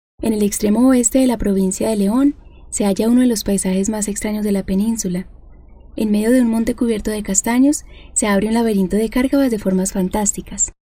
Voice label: locutora colombiana colombian female voiceover

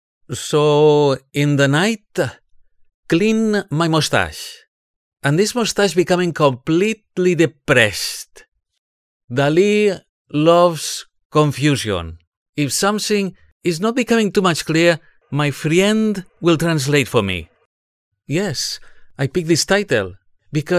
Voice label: imitation english voiceover; imitación de inglés con acento catalán

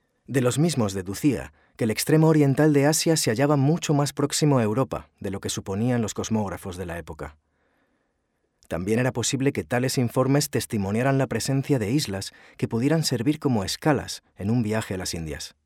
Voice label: locutor spanish voiceover

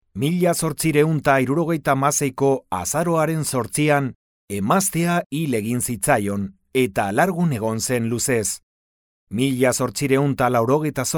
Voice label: locutor euskera basque voiceover

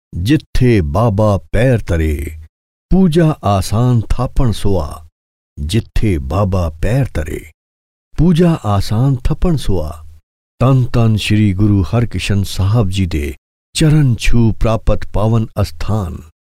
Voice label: punjabi voiceover locutor

